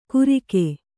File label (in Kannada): "ಗುರಿಕೆ", Kannada